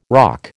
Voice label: "roque", American

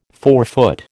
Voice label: "forefoot", American

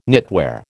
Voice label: "knitwear", American

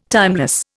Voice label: "timeless", Indian

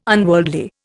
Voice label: "unworldly", Indian

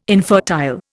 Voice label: "infertile", Indian

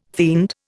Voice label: "fiend", Indian

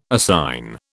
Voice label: "assign", British

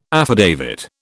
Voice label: "affidavit", British

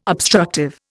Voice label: "obstructive", Indian

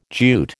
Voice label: "Jute", American

